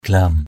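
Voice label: "glam", Cham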